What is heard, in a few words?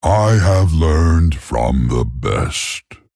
Male Tauren; FORCE OF NATURE